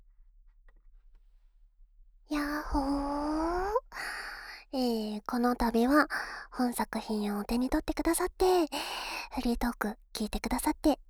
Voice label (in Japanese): フリートーク; SEなし